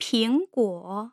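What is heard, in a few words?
"pingguo", Mandarin Chinese, tone pairs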